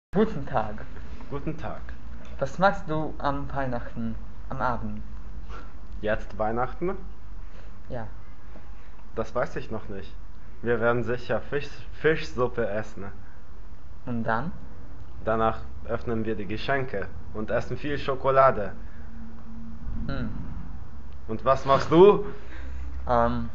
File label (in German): dialog